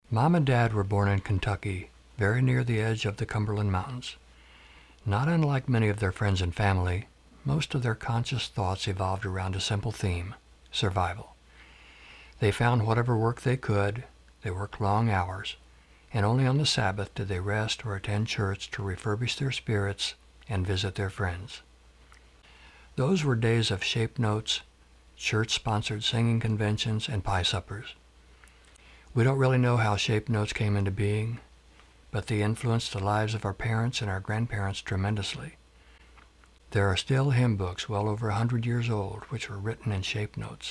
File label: Story Telling Narration